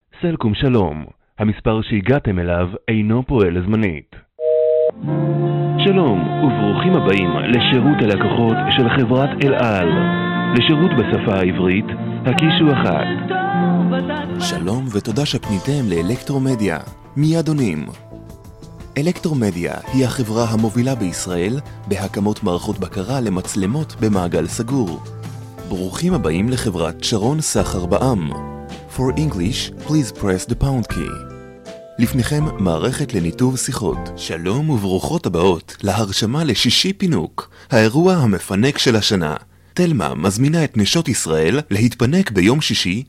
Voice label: hebrew ivr